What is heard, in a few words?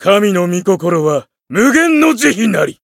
chant, jp